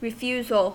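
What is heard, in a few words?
"refusal", American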